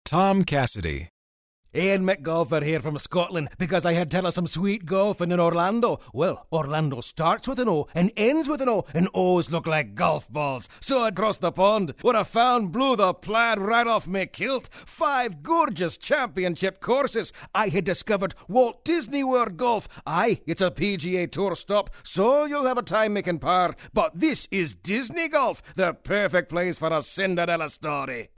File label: scottish; Scottish Accent